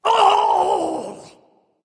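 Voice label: ouch, dragon; fafnir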